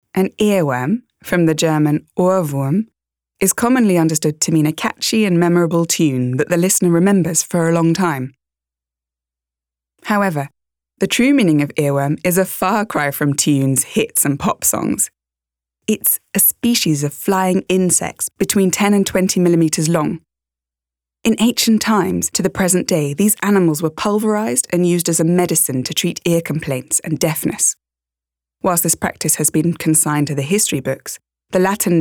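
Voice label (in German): British English, Kommentar; Earworm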